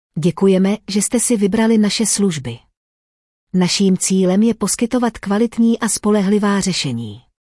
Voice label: female, cs